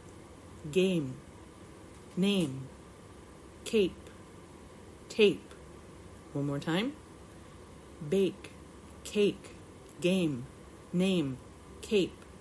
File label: Reading Test Practice